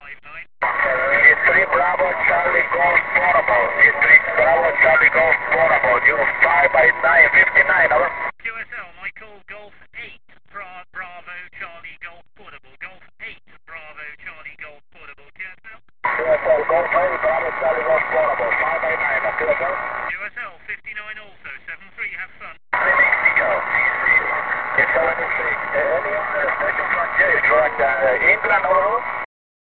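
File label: amateur radio contact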